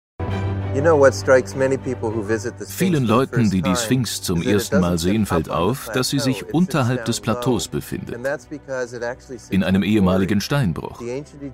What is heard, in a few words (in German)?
Doku Voice Over